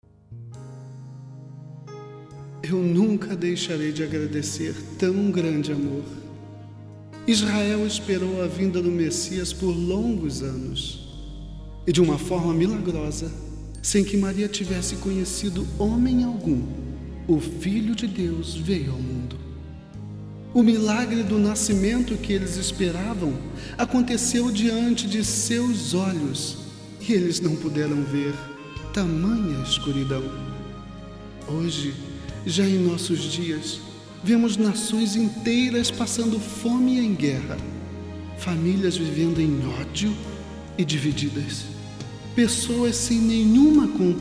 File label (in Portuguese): Narracao